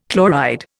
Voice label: "chloride", Indian

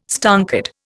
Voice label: "stonkered", Indian

